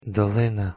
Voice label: "Dolyna", Ukrainian